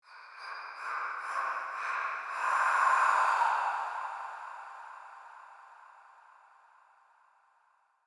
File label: Ghost whisper